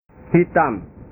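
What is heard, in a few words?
"hitam", Indonesian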